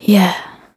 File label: Vocal "Yeah", exclamation